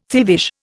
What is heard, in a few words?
"thievish", Indian